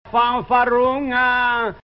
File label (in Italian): "fanfarunn-a", genovese; faNfa'ruNNa/ (fanfarona)